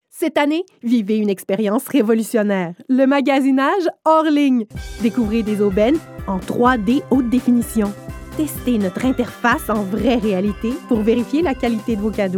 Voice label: francais quebecois naturel annonceur amical avec sourire energique; winners; jeune adulte

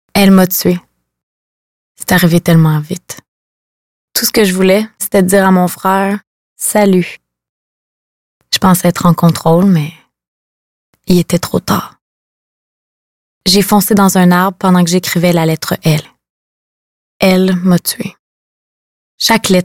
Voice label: annonceur personnage serieux sobre jeune adulte medium grave; saaq

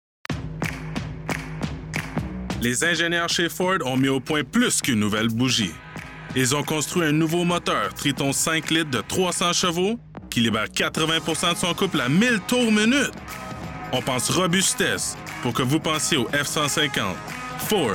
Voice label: annonceur fr normatif qc naturel jeune adulte adulte

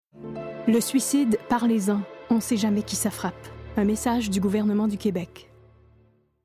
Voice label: annonceur fr qc naturel grave serieuse adulte; le suicide

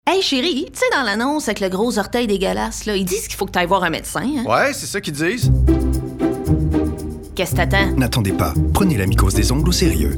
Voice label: pub qu'est-ce t'attends fr qc joual adulte conversation quotidienne; jublia; degout

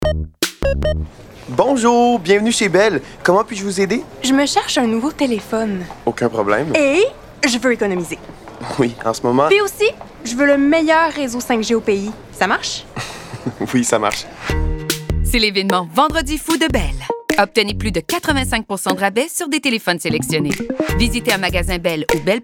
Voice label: black friday mix radio; bell

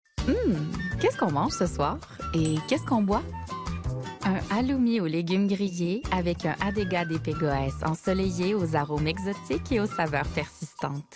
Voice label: vins du portugal, fr qc naturel annonceur affirmee douce medium adulte; odega

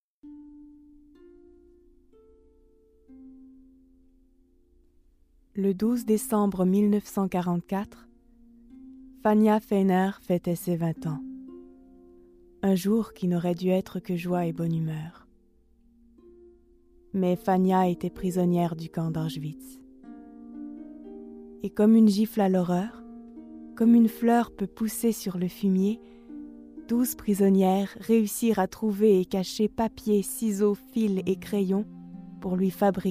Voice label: narration documentaire francais normatif quebecois naturel; le coeur d'auschwitz; douce

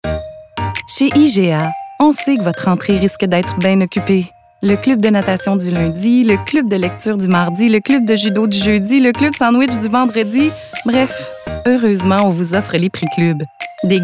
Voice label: pub annonceur fr normatif promo amical avec sourire grave medium adulte; iga; mature

